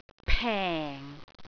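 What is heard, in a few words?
"peng4", Cantonese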